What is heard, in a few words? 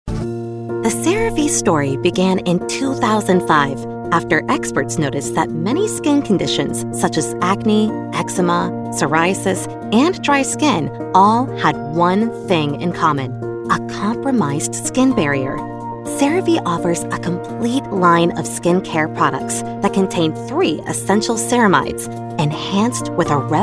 Storytelling, explainer